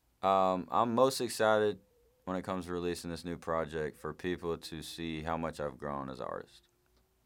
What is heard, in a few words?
Liners Excited Releasing